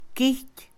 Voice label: Mixe, Mujer; INALI

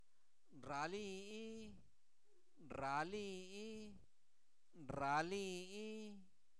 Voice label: Zapoteco Albarradas, santo domingo albarradas; INALI; verbos; se endereza

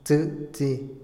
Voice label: besar, Otomí, hombre; Emociones; INALI

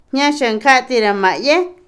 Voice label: Otomí, mujer; llevar bajo el brazo; Movimiento; INALI

